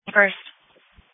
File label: Police Dispatch